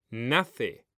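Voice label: "nace", Spanish; mekanta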